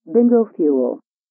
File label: processed bingo